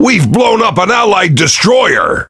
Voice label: Pirate Speech Destroy Ally Destroyer; World Of Warships